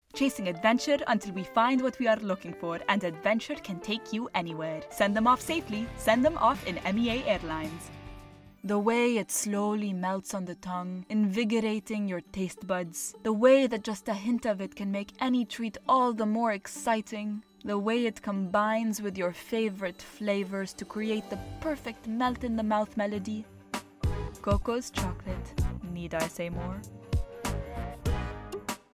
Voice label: arabic accent commercial, english female voices arabic accent